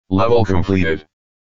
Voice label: "Level Completed", Voices